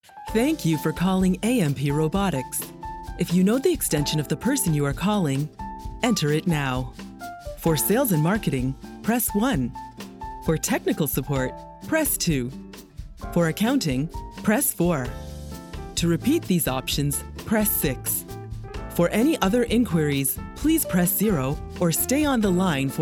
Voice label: IVR